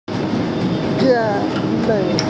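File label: "jie3mei4", Mandarin Chinese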